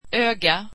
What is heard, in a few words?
swedish eye